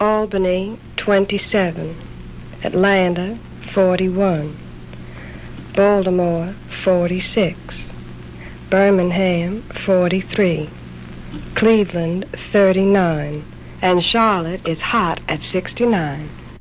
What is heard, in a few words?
sultry weather report, female; MISC and SPOKEN WORD